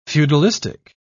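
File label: "feudalistic", English, British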